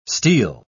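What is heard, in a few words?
"steel", English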